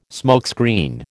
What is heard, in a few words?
"smokescreen", American